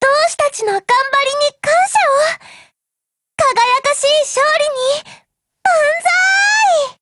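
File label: Japanese, Voice; GF Alarm; PPsh41Mod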